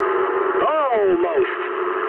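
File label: bomb failure mood medium, speech English; War Thunder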